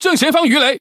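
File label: Speech Alarms Torpedo Ahead, Taiwanese Chinese; World Of Warships; ZH TW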